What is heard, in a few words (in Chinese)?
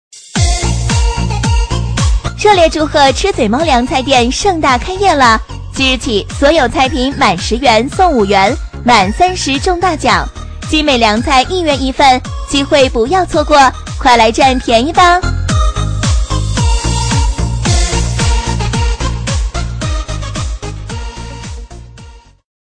女 促销 凉菜店; 吃嘴猫凉菜店